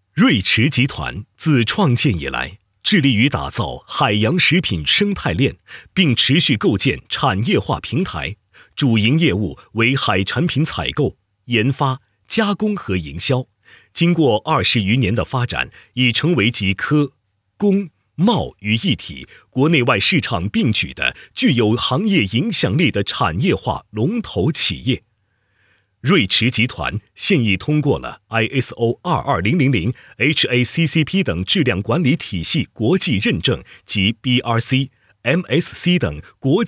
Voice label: 男 品牌宣传 礼盒推介视频配音; 瑞驰大连湾

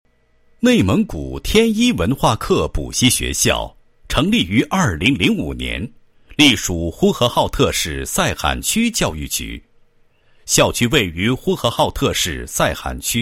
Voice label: 男 学校; 内蒙古天一文化课补习学校

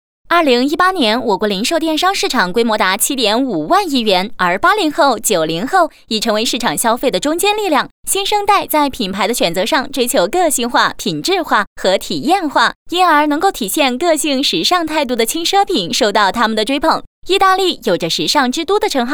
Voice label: 女; 飞碟说; 电商

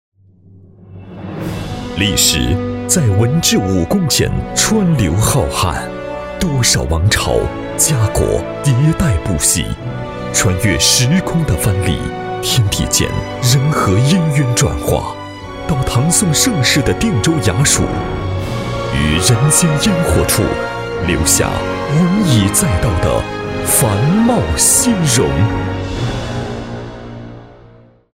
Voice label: 男 历史 厚重 样, 国语男声配音

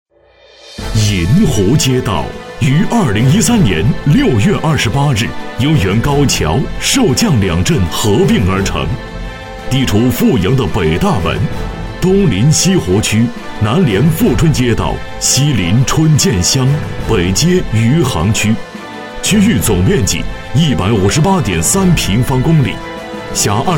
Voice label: 男 专题 银湖街道党建配音

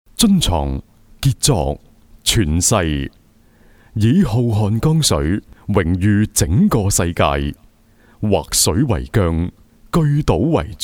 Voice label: 粤男; 天骄半岛

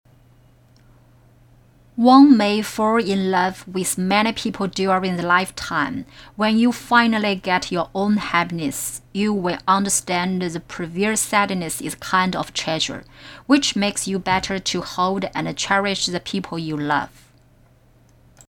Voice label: 英语配音

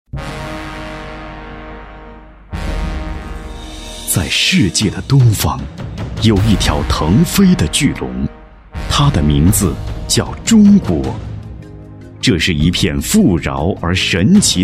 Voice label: 男 深度浑厚 古典大气; 发展中的中国

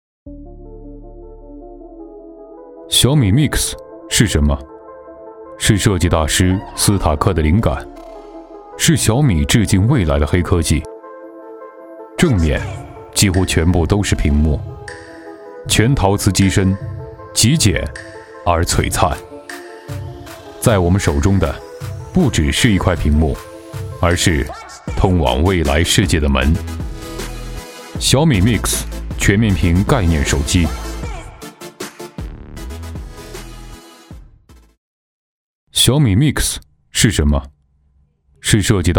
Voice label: 男 广告 小米MIX2

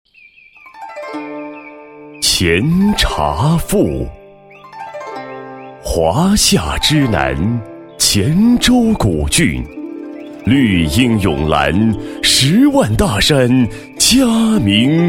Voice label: 男 诗歌配音, 国语男声配音, 厚重大气

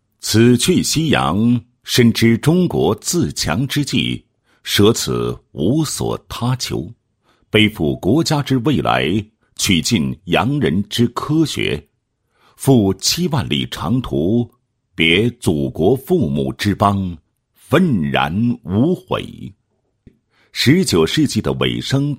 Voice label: 男, 学校介绍; 哥伦比亚大学

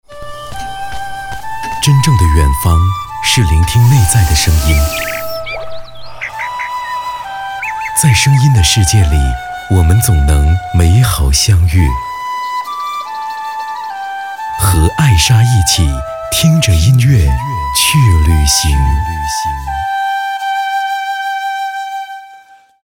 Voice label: 电台; 听着音乐去旅行

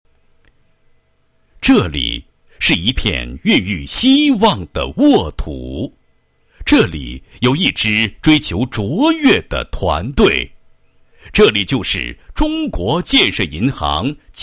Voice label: 男 专题; 建设银行支行宣传介绍

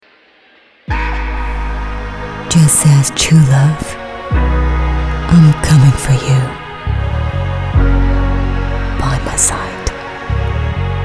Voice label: 英文女性感深沉神秘风格

